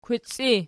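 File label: "kwici" ergative variant, Archi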